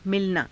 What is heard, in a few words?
"milanaa", Hindi